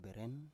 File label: "beren" Biak